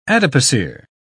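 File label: "adipocere", British English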